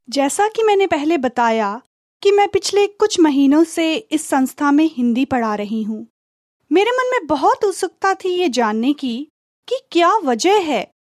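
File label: Hindi, General; GoLocalise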